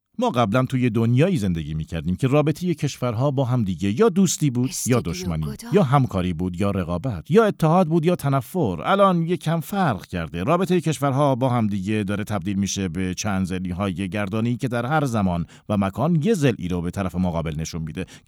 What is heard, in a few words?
dub